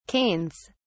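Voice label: "canes", British English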